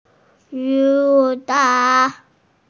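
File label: "gu3zhang3", Mandarin Chinese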